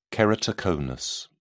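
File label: "keratoconus", British English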